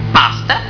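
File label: master, Male voice, "Master?"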